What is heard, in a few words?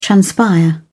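"transpire", British English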